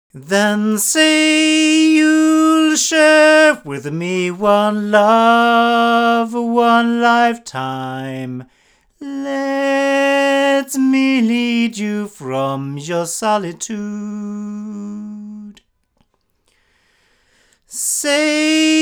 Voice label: Sontronics STC-5 male, microphones recordings